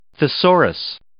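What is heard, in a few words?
"thesaurus", English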